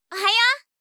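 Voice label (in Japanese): "おはよう！" Japanese, ASMR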